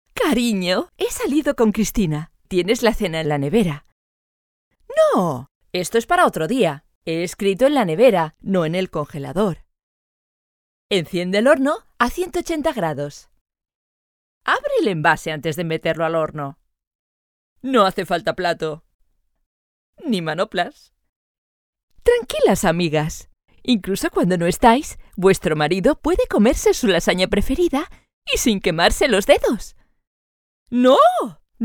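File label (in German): Spanish, Dynamisch; ESP; Fremdtake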